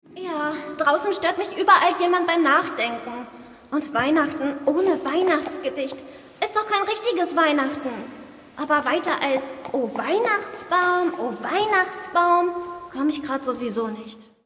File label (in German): Kinderhörspiel Einhorn; LOFT VOICES